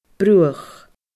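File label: "bruach", Scottish Gaelic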